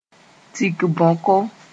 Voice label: "tik-bãoko", Moore; large / big jar

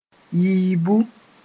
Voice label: "yiibu", Mooré

